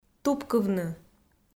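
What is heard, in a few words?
"тупкывны", Komi, female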